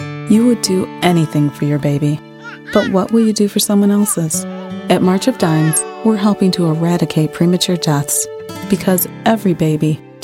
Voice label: Commercial Empathetic Heartfelt